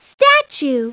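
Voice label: "statue", English; Treasure Galaxy; Learning Company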